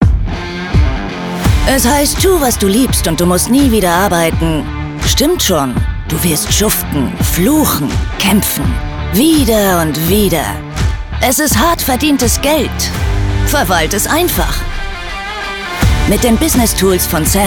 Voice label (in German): TV Spot; Sam App